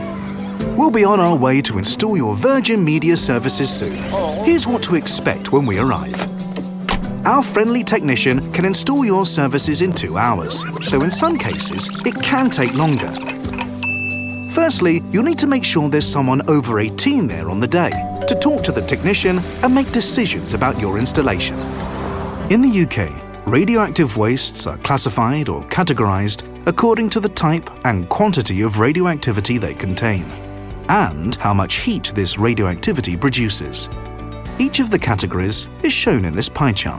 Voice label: corporate montage